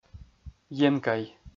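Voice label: "енкай", Komi, male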